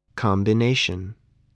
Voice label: "combination", voice